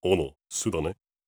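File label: question short, voice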